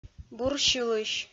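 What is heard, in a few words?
"бурсьылысь", Komi, female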